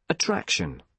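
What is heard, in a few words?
"attraction", British English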